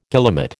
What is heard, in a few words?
"guillemot", American